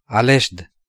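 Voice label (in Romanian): "Aleșd", Romanian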